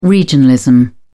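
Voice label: "regionalism", British English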